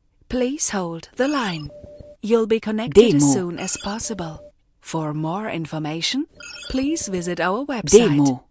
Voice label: Ansage Englisch Hold the line and visit our website